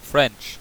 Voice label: "french", en